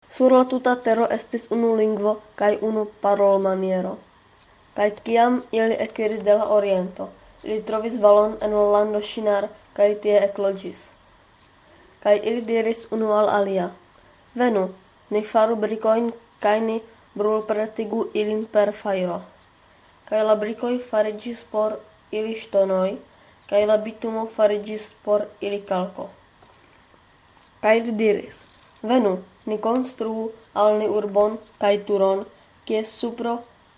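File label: Esperanto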